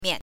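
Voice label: "mian", Mandarin pinyin, neutral tone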